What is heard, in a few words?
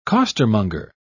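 "costermonger", British English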